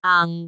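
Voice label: "aang2", Cantonese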